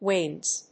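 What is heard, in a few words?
"Winds", English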